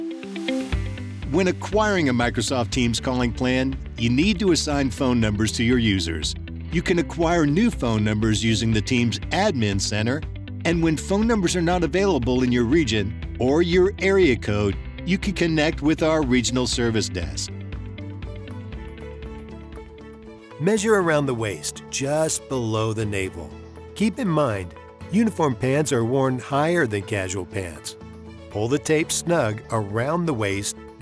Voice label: elearning

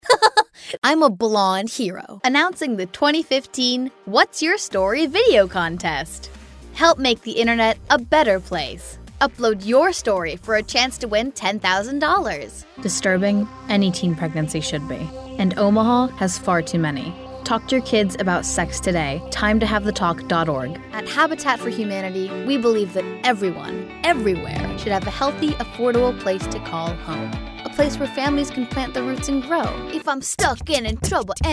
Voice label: Commercial Composite

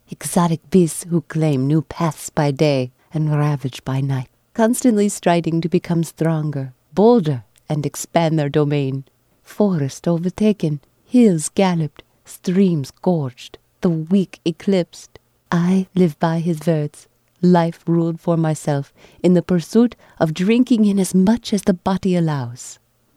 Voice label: Dramatic European Woman

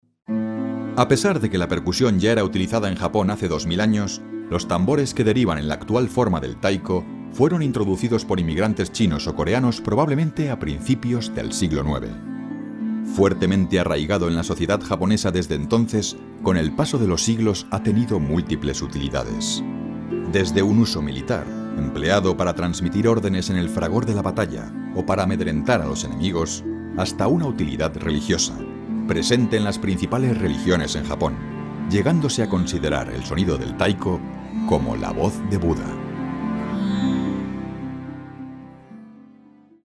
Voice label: Documentary

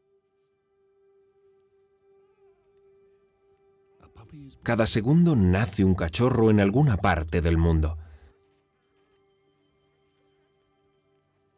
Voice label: Documentary Tender

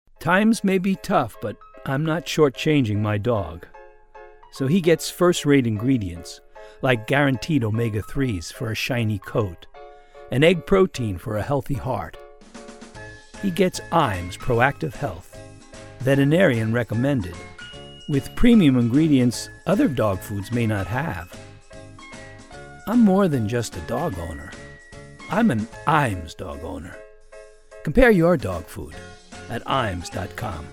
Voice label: Commercial Trio

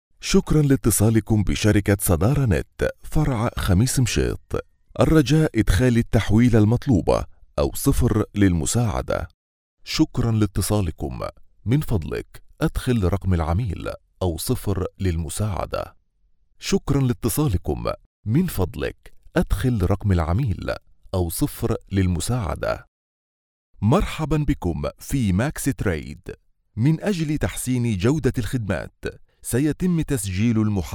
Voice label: IVR VO ARABIC; SHOREEL

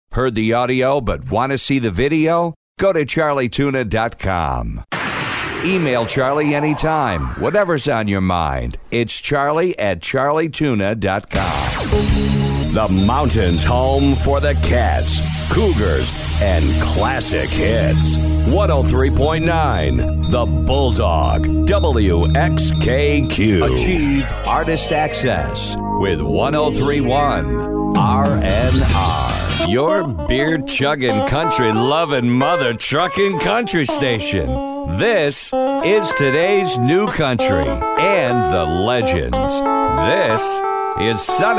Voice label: DJ; StaPro

